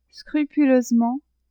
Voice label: "scrupuleusement", French; adverbs